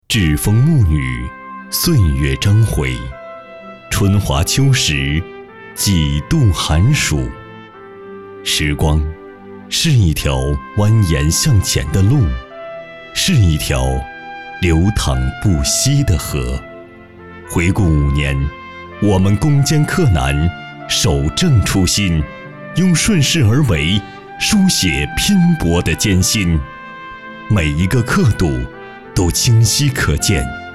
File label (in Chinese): 党政纪录片